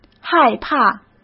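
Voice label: "害怕", Mandarin Chinese; hsk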